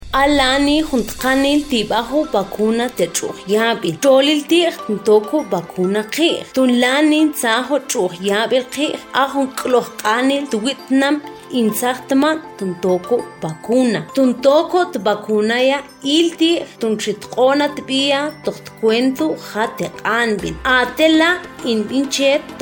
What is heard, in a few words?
SPOT MAM